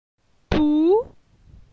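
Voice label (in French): "Pou", French; phonetique; la syllabe ou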